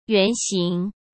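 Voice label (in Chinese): "原型", Mandarin Chinese; chinese flashcards; hsk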